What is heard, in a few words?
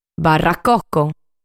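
"Barracoco", Sardinian word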